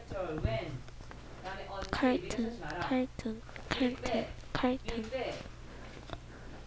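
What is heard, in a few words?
"cartoon", spoken word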